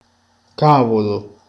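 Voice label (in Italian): "caldo", Italian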